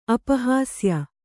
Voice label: "ಅಪಹಾಸ್ಯ", kannada